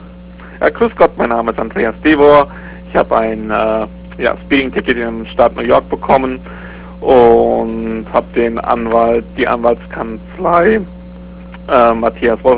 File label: german